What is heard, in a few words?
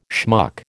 "schmuck", American